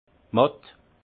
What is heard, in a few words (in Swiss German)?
"matt", alsace; vin; mou